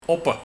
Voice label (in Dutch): "Oppe", Op